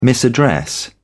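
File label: "misaddress", British English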